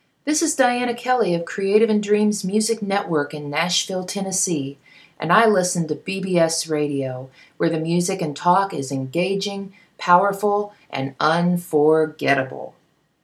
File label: bbs radio promo